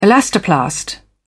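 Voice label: "elastoplast", British English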